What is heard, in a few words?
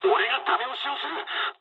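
player killed enemy mood med, speech japanese; War Thunder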